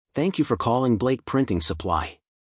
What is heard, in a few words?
welcome, phone